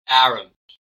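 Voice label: "arrant" English, US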